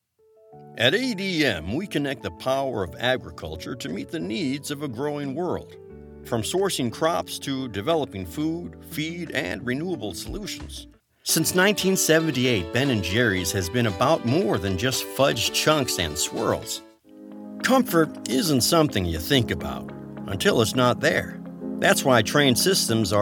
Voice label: Corporate narration professional authentic adult male clear